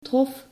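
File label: "trof", Dutch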